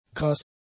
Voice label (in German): "krass", German; adjectives